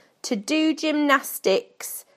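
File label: "to do gymnastics", British English; PictureDictionary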